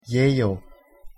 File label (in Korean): "예요" Korean; pronunciation